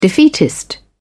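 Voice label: "defeatist", British English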